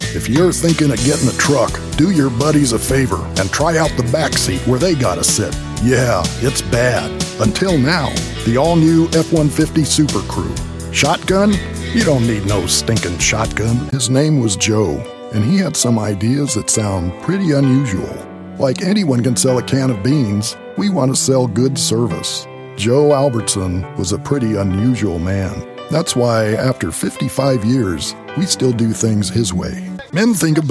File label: Commercial Voiceover; CHEVROLET